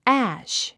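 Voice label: "ash", English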